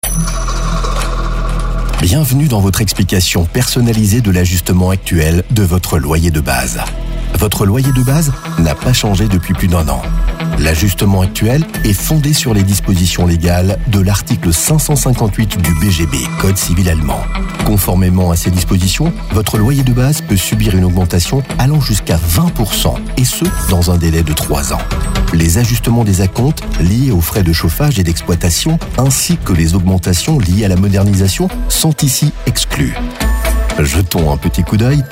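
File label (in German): französischer Sprecher; Französischer Werbesprecher; french voice-over talent male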